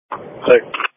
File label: Police Patrol 1 (Dispatch)